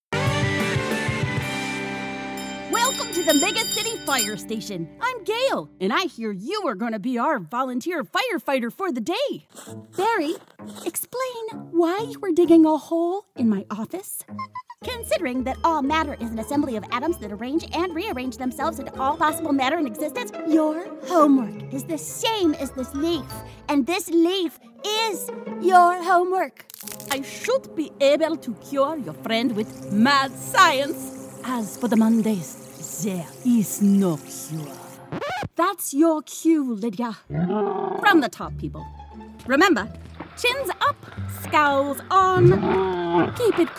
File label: Animation